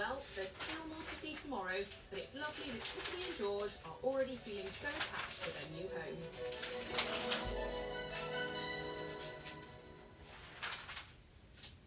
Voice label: British Television Programme